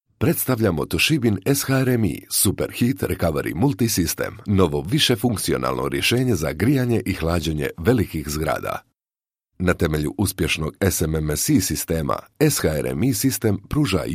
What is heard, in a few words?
Croatian M E-learning; voice over